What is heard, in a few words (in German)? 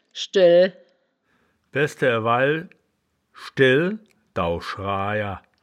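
"schdel", wittlicher woerterbuch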